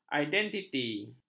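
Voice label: "identiti", Malay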